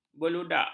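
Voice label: "beludak", Malay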